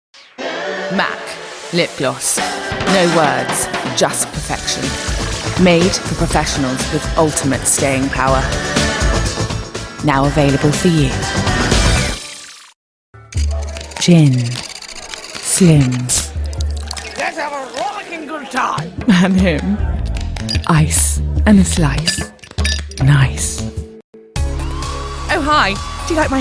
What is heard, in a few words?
English Uk